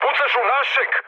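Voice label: Serbian player friendly fire mood med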